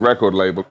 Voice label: LoFi Words, LoFi Vocals